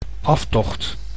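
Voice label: "aftocht", Dutch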